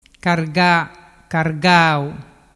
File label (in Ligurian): "carga cargau", dialettu